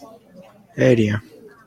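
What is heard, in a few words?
"alien", Japanese, male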